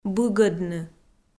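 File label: "быгӧдны", Komi-Zyrian, female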